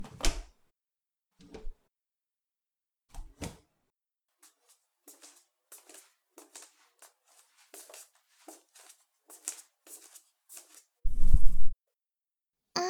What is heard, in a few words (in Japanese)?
囁き 甘やかし 寝かしつけ; バイノーラルマイク 効果音あり; 効果音大差分; バイノーラルマイク収録 効果音あり大きめ